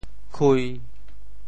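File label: "khui1", Teochew; 潮州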